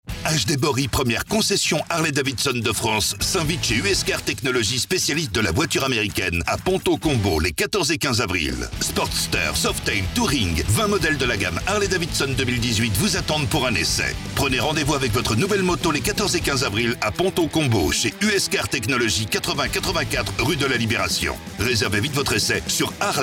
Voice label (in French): Spot Harley Devidson; US CARS TECHNOLOGIE LLC